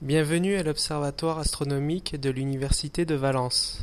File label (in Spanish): frances